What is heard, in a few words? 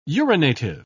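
"urinative", British English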